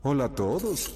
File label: hello there Latin Spanish